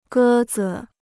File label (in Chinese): "鸽子", Chinese